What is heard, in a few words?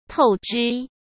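"透支", Mandarin Chinese; chinese-flashcards; hsk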